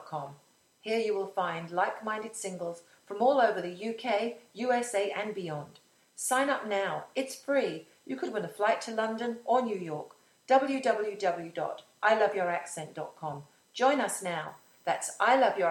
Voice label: i love your accent radio ad